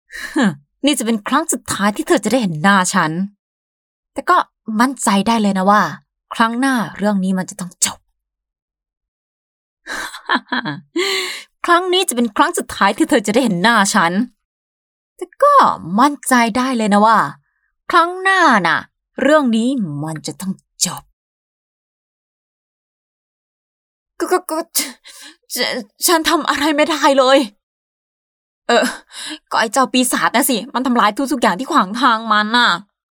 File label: Thai Female